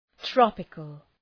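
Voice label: "tropical", English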